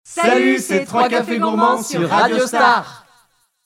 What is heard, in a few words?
JINGLES LINER; PLEIN COEUR; MOULINS